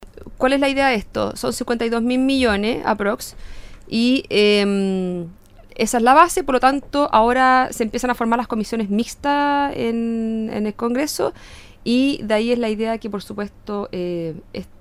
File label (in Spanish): cuña presupuesto male